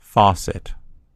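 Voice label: "faucet", American English; gcompris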